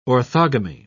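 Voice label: "orthogamy", British English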